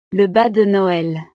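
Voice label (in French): "le bas de noel", French; El calcetín navideño; navidad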